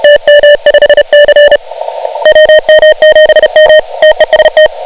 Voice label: 28MHz